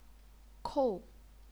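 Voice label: "kou", Chinese, fourth tone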